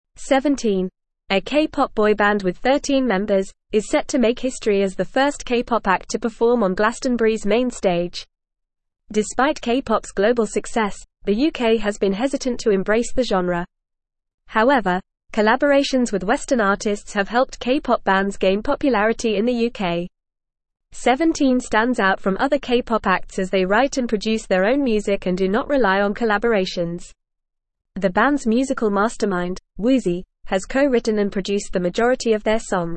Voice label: English FAST Reading